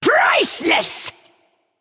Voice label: Female Naga Attack